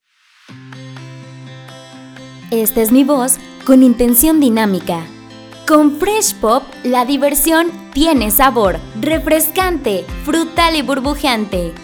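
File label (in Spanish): Dinámica Musicalizado, locutora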